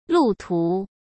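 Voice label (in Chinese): "路途", Mandarin Chinese; chinese flashcards; hsk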